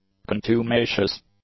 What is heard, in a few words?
"contumacious", English; adjectives